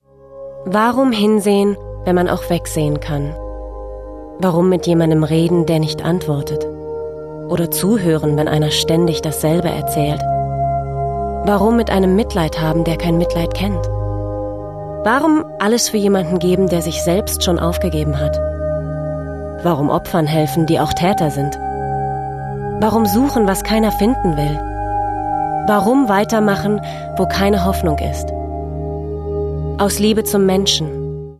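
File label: German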